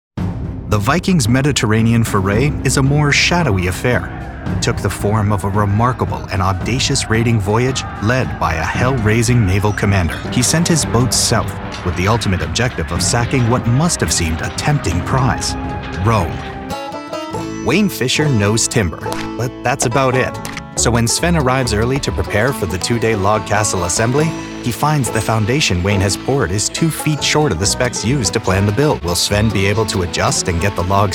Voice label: narration

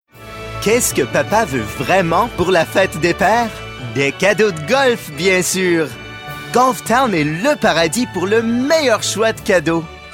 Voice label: French commercial; TaDa

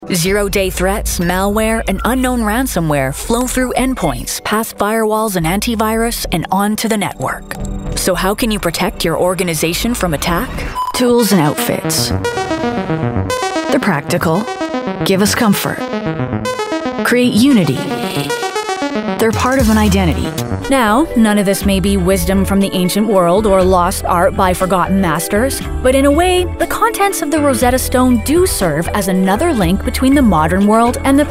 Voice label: narration; TaDa

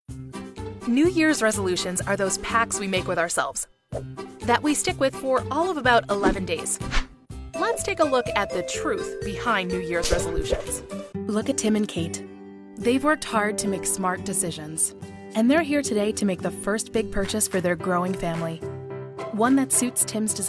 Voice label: Narration; TaDa

